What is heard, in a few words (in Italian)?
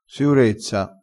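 "siurezza", vocabolario dialettale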